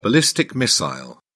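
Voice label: "ballistic missile", British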